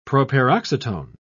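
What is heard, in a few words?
"proparoxytone", British English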